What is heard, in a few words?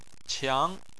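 "qiang", Chinese, tone 2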